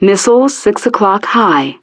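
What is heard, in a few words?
"Missile 6 O'Clock High", English; DCS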